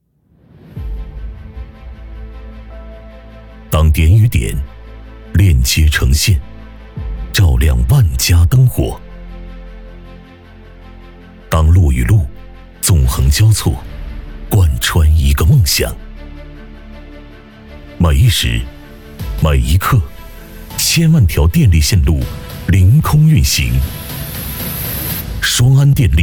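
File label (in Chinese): 男【宣传】; 双安电力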